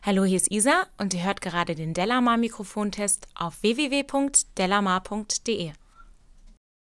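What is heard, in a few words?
speech female; micw e216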